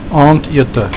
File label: "aandete", afrikaans